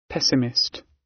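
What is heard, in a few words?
"pessimist", British; Life, leisure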